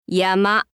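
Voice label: "yama", Japanese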